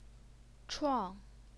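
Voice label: "chuang", fourth tone, Chinese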